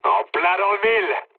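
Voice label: bomb success, mood low, French; War Thunder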